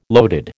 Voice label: "loaded", American